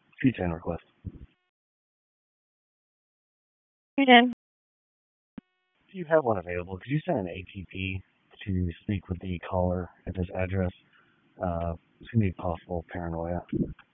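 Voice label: Police Northeast District Dispatch, Raleigh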